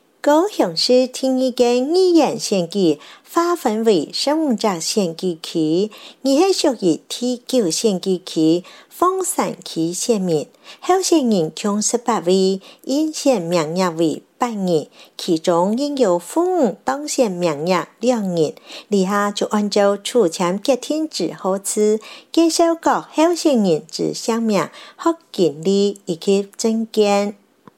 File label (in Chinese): 客語; 高雄市; 高雄市市長議員有聲選舉公報; 第九選區